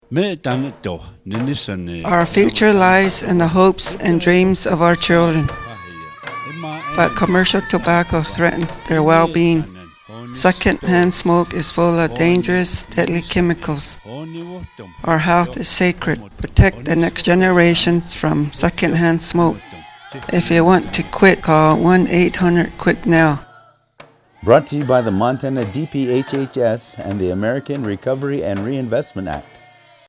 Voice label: Northern Cheyenne Radio